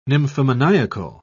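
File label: "nymphomaniacal", English, British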